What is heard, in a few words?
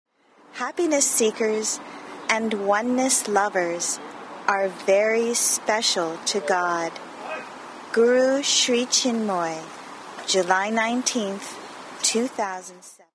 poem